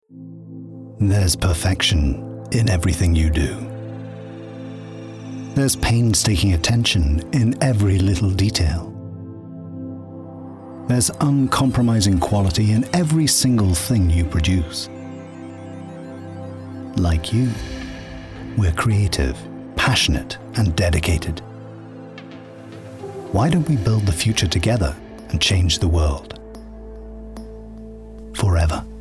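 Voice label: Corporate Commercial, Received Pronunciation; Perfection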